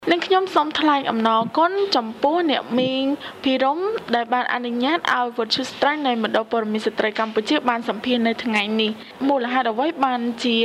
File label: Interview Forest Community Female Member; WMC Radio